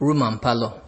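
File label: "room and parlour", Nigerian Pidgin, male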